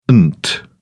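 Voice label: "ent", British English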